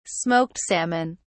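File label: "smoked salmon", British English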